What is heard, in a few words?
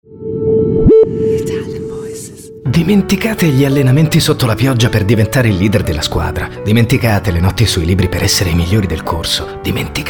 ITALIAN VOICES Natural Dialogs, male voices